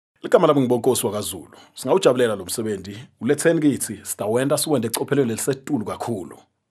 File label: male swazi voice over talent upbeat